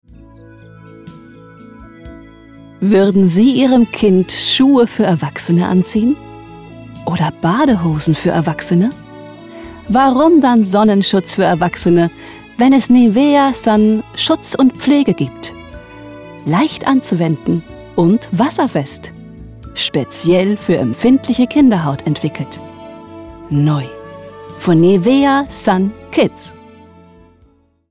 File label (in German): Werbung; Nivea